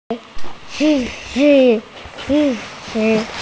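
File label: "si1 ji1", Mandarin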